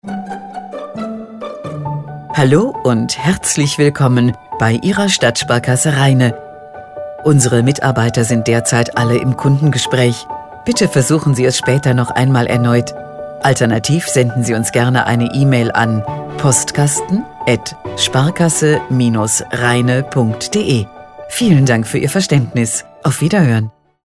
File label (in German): Ansage